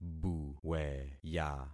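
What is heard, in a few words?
"buweya", concatenated syllables; Affixing experiment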